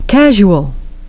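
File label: "casual", English pronunciation; ˡkæʒ.ju.əl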